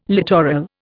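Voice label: "littoral", Indian English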